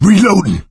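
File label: reloading quiet, male; Left 4 Dead 2; coach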